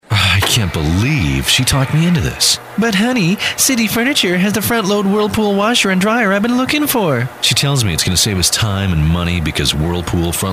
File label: Commercial